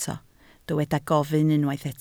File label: "gofyn", Welsh